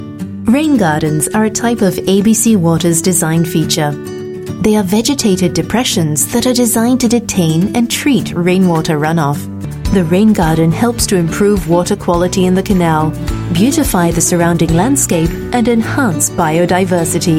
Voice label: Asian English Corporate